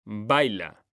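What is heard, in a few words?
"BAILA", Spanish, male; mekanta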